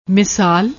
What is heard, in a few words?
"misaal", Punjabi